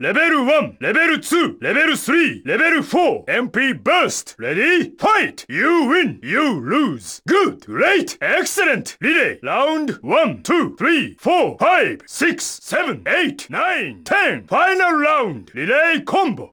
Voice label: Japanese Announcer

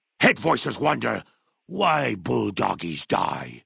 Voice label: idle chatter; FNV

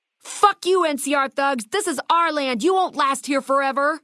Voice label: female adult take water; FNV

